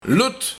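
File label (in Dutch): "Lut", dialect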